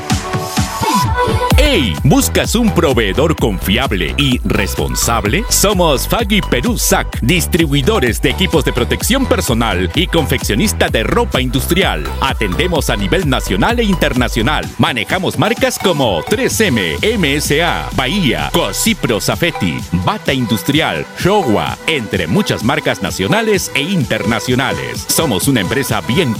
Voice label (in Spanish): publicidad; fagyperu